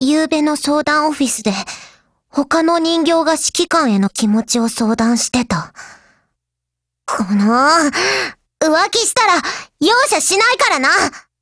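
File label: DIALOGUE WEDDING, Japanese; AA12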